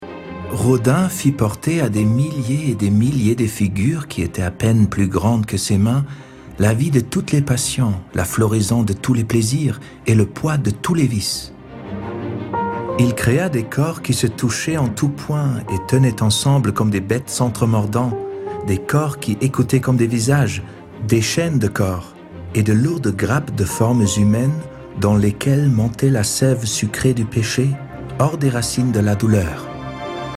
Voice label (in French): voices docu francais; rodin